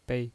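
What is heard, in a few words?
"bei", Mandarin Chinese, neutral tone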